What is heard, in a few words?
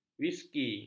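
"wiski", Malay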